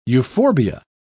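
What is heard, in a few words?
"euphorbia", British English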